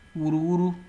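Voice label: "wuruwuru", Nigerian Pidgin, male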